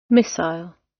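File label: "missile", British English; Time, history; Thời gian, lịch sử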